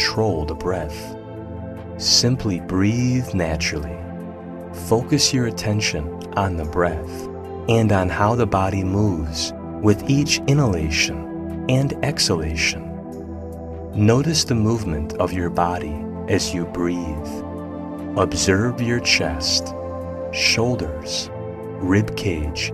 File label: Meditation